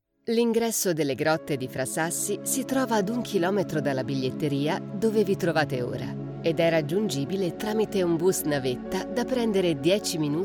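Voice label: Italian, E-Learning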